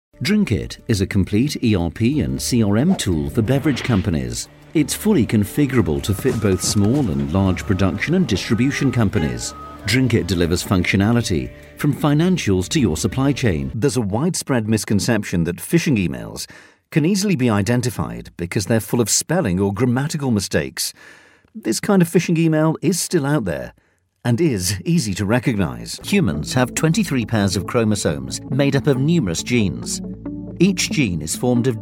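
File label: narration, British Believable Voice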